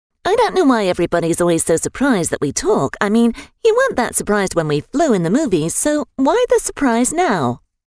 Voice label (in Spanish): locutora inglesa